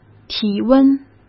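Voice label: "体温", Mandarin; chinese flashcards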